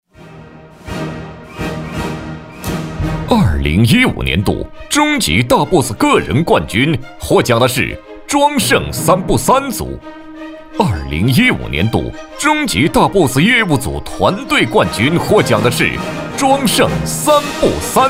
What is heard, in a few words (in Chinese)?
男100号-颁奖-颁奖名单宣读 快速 有力, 国语男声配音